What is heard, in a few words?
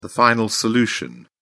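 "final solution", British English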